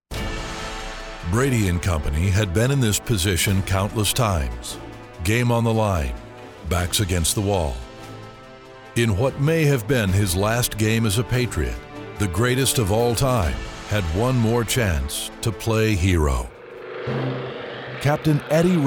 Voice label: narration

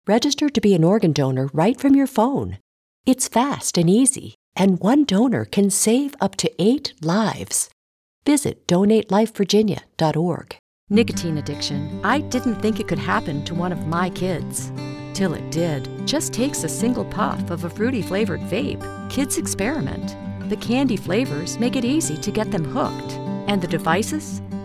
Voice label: Nonprofit, PSA

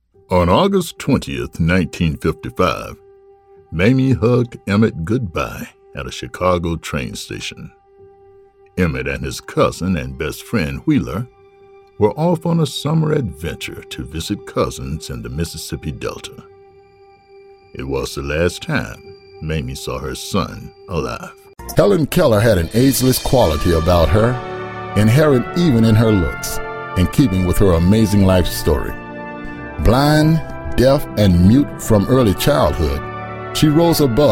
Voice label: DOCUMENTARY

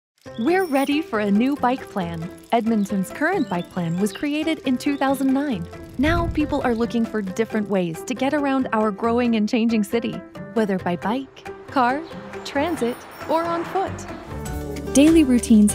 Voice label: Commercial VO

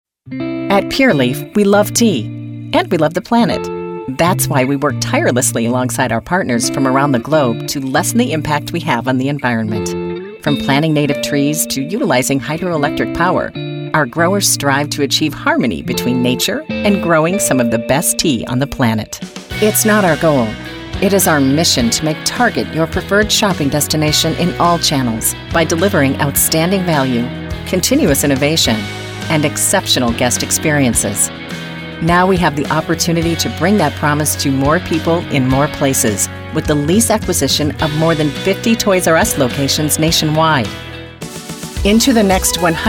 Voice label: Corporate Narration

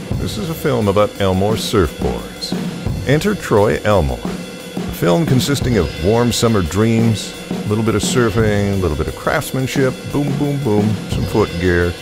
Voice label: commercials

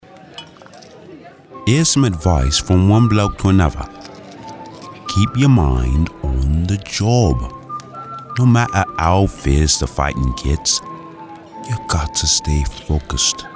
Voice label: Friendly Advice

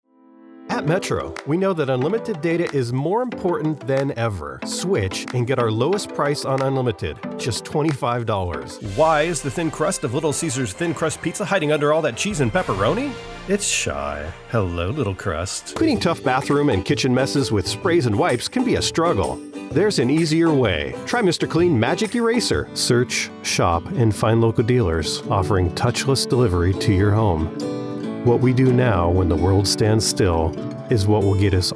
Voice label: Commercial Demo

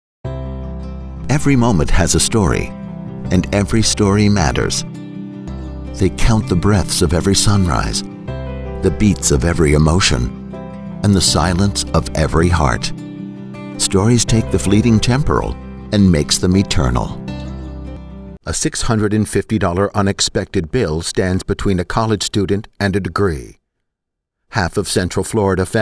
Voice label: narration mixed

